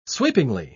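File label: "sweepingly", British English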